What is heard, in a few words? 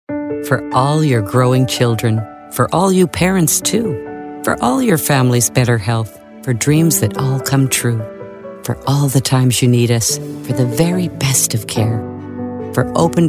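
commercial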